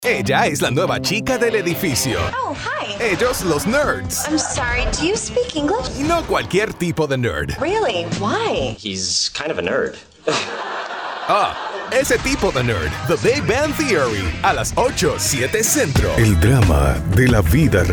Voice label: spanish promos